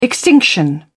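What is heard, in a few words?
"extinction", British English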